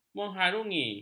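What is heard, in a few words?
"menghurungi", Malay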